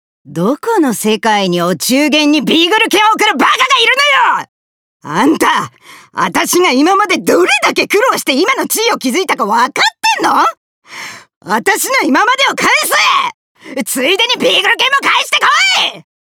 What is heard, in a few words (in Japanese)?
ブチ切れ50代